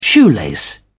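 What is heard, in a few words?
"shoelace", British English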